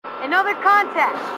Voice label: another contact, marine tough